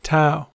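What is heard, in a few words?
"tau", US English; pronunciation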